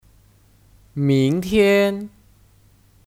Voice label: "明天", Míngtiān; Keterangan waktu; Besok